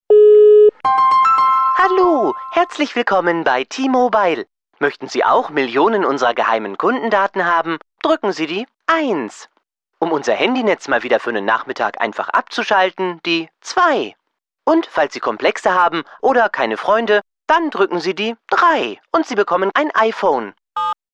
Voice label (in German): anrufbeantworter; t-mobile